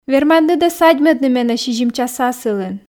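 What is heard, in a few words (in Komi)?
верманныд-ӧ садьмӧдны менӧ сизим час асылын, Komi, female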